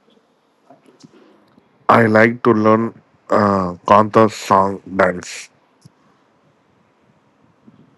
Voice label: voice message